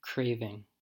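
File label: "craving", American English; pronunciations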